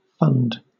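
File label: "fund", British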